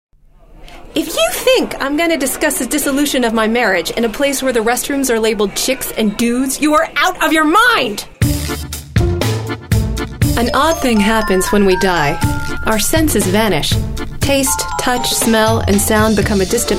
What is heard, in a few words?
character